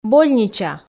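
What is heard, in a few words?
"больнича", Komi, female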